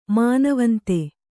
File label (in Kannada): "ಮಾನವಂತೆ", Kannada